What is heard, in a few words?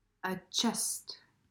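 "A chest", English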